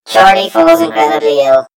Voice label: story voiceover; yumpod